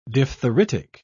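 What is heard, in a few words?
"diphtheritic", British English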